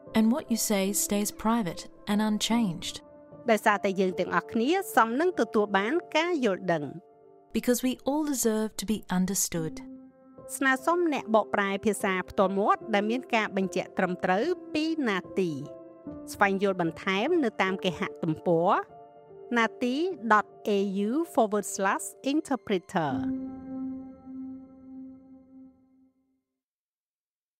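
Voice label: Khmer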